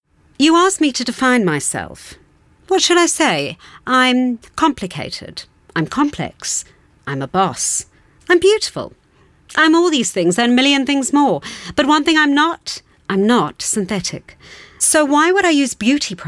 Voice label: English Conversational